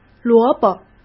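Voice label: "萝卜", Mandarin Chinese; hsk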